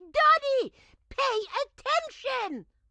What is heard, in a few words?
grandma chopper annoyed; Blind Drive